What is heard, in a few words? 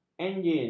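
"enjin", Malay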